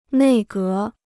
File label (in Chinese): "内阁", Chinese